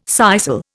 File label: "sisal", Indian